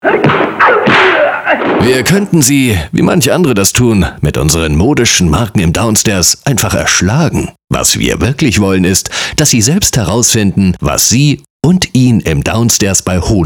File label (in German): Werbung; Honer